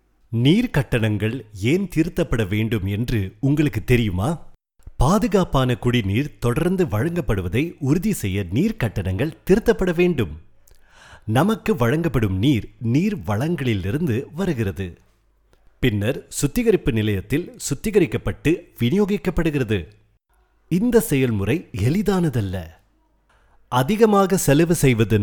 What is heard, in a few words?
tamil